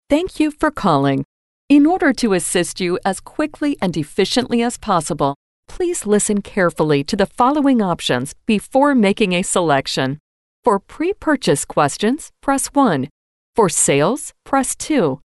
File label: female ivr